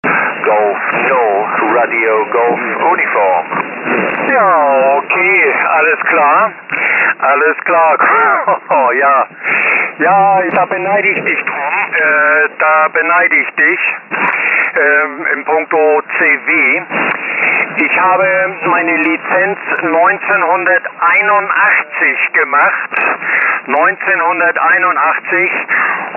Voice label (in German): SDR QSO Mitschnitt; Lima-SDR